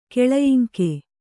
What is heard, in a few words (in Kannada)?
"ಕೆಳಯಿಂಕೆ", Kannada